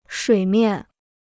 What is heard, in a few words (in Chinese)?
"水面", Chinese